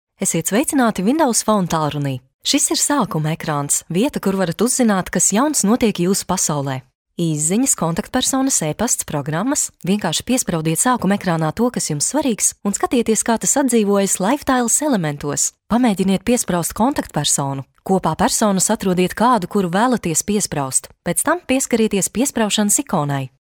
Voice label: female latvian voice over sales

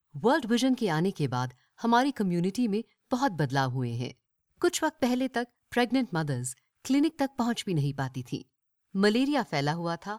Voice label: female hinglish voice over character

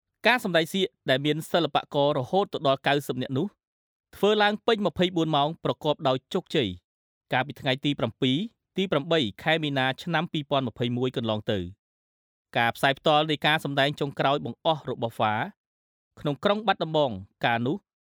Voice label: male khmer voice over upbeat, cambodian

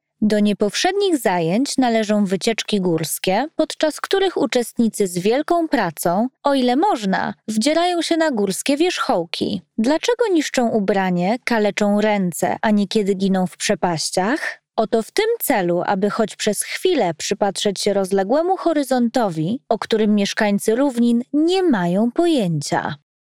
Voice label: female polish voice over narrative

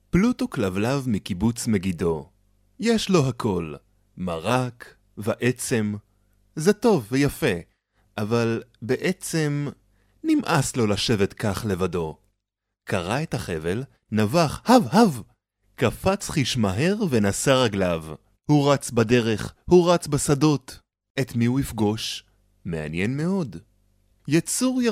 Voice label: male hebrew voice over upbeat

